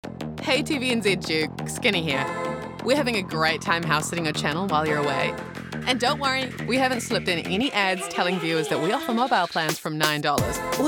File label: english new zealand promos